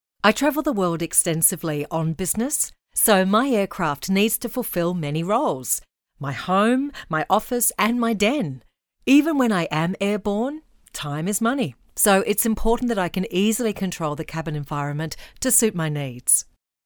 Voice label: female australian voice over character